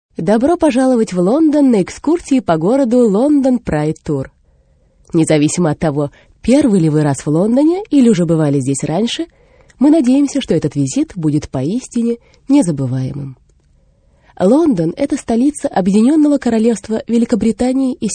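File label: Female Russian ivr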